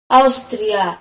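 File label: austria, Swedish; gcompris-data; geography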